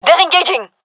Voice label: takingfire, gendarmerie fem; vo; agents